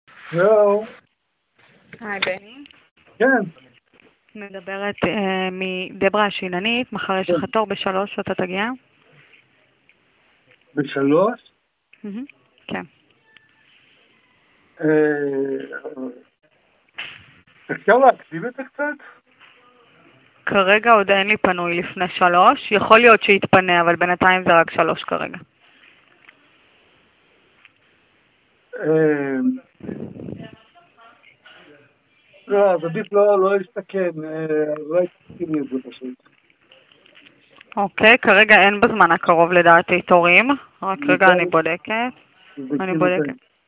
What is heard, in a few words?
call recording